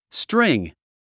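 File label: "string", English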